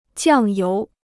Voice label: "酱油", Chinese